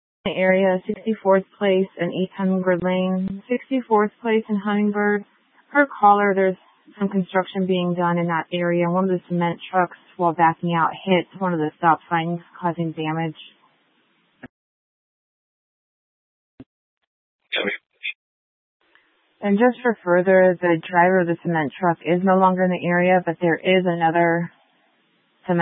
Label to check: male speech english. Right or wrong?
Wrong